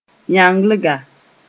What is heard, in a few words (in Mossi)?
"yãnglga", moore